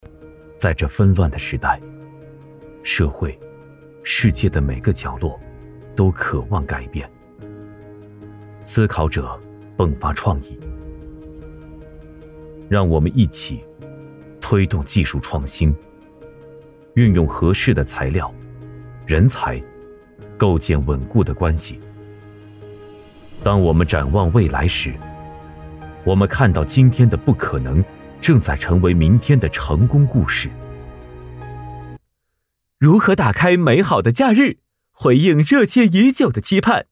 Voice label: 男 专题 大气沉稳 发布会开场

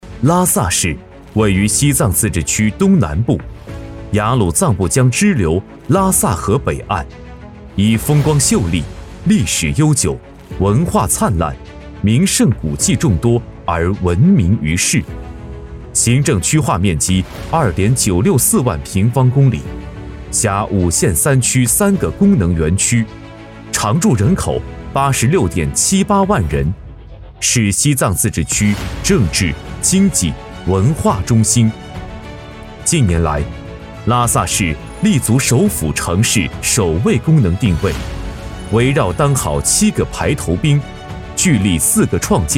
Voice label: 男 大气专题; 党建引领出实招

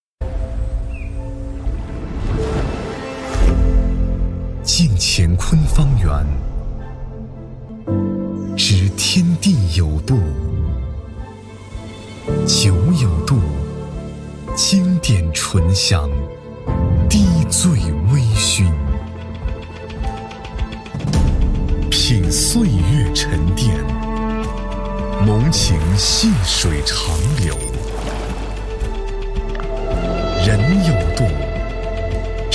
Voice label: 男 广告 酒广告; 丰谷酒广告

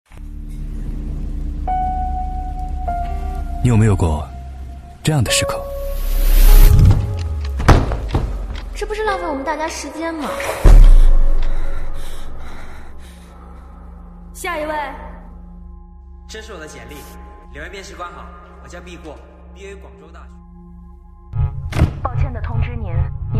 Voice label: 男 走心 宣传片; 浙江大学; 2022宣传片

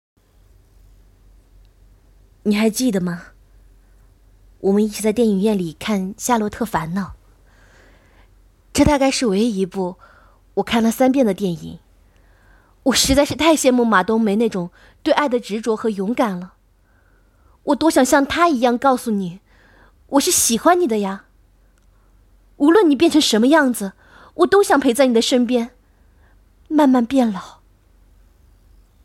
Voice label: 女 独白配音

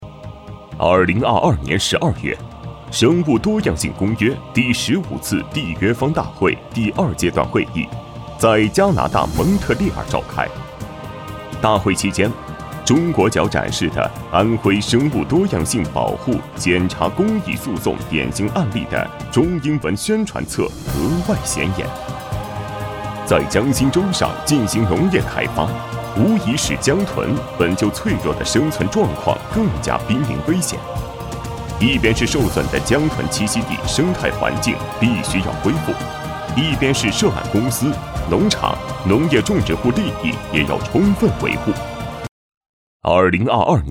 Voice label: 男 专题片 大气讲述 生物多样性

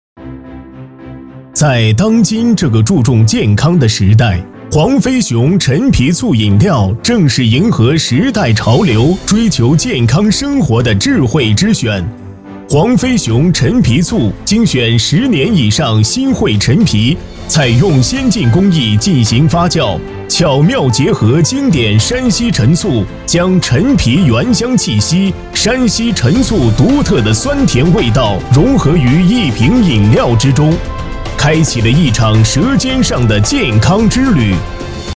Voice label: 男 专题 介绍类 醋; 黄飞雄醋

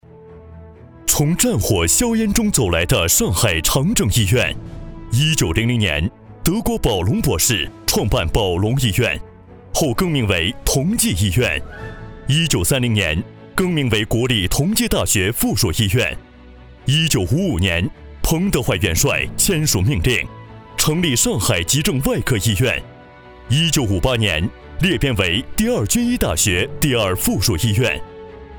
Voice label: 男 专题片 浑厚震撼 骨科医院; 长征骨科医院

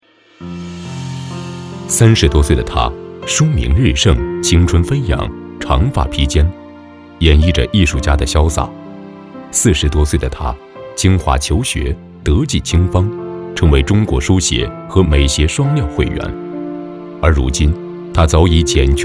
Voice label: 男 专题 书画人声

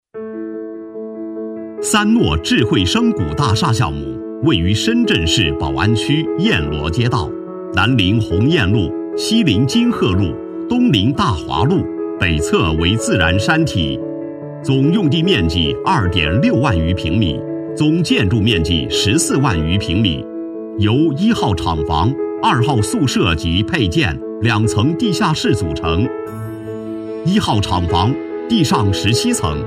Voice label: 男 工程解说 施工; 三诺智慧声谷大厦项目

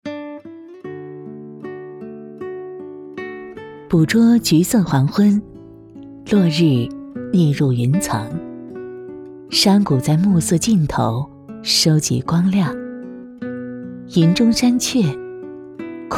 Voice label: 女 旁白 温馨舒缓

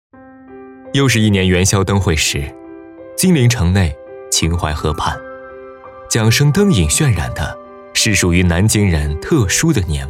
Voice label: 男 宣传片 温情; 南京地铁

